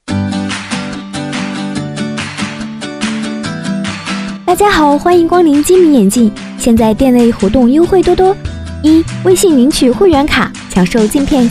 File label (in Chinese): 女 促销广告 精明眼镜 活泼年轻; 女007-年轻甜美; 甜美亲切